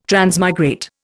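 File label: "transmigrate", Indian English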